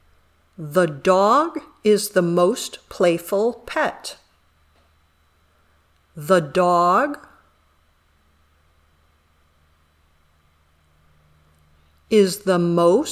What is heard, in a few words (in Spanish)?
Dictado